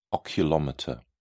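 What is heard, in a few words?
"oculomotor", English, British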